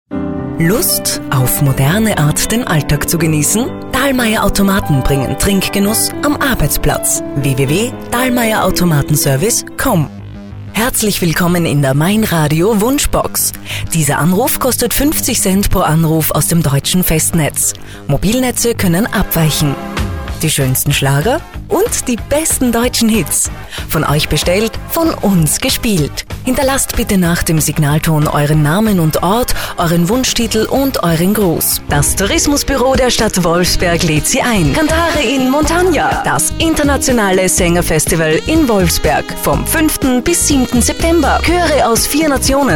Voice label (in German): Sprecher Showreel